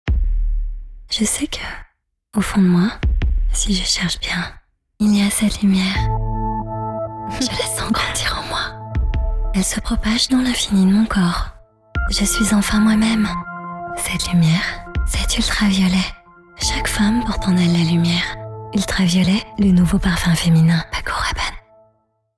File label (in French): spot, voix off publicitaire commercial; Ultra violet